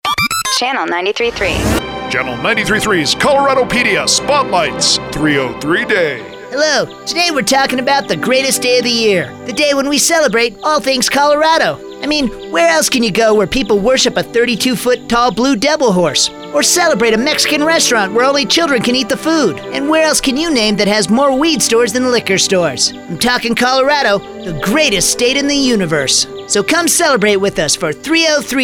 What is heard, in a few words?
promo